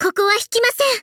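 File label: ultimate Japanese; Liushih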